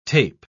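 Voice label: "tape", English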